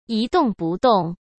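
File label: "一动不动", Mandarin Chinese; chinese flashcards; hsk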